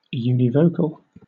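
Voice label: "univocal", British English; pronunciations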